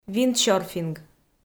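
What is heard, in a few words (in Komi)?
"виндсёрфинг", Komi, female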